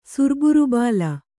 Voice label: "ಸುರ್ಬುರುಬಾಲ", Kannada

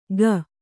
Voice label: "ಗ", kannada

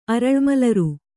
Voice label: "ಅರಳ್ಮಲರು", Kannada

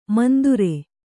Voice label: "ಮಂದುರೆ", Kannada

